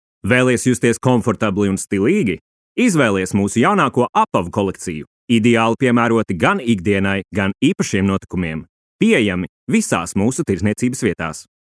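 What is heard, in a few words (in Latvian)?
Reklama Latvian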